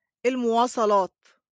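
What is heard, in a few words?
"المواصلات", Arabic; transportation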